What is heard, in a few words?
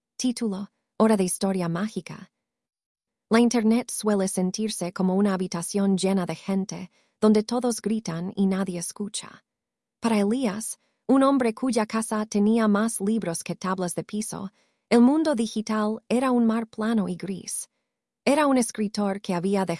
spanish; micro-tales